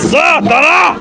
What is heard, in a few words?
de man alert; npc; svencoop; morass beta